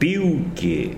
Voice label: "corazon", Tsesungun